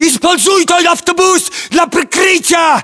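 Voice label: order cover bus, battlechatter, Russian; localized english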